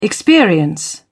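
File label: "experience", English, British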